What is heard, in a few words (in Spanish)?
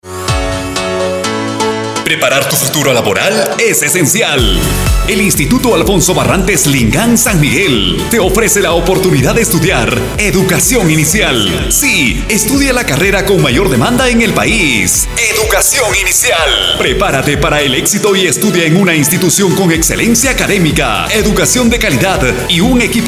publicidad; EXAMEN DE ADMISIÓN 2026